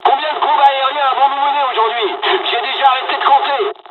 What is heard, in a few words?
dialog, dialogs french; War Thunder